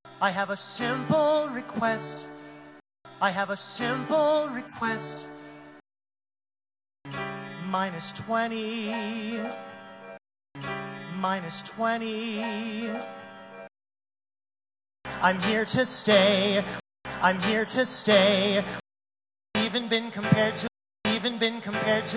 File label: Mic Pop Examples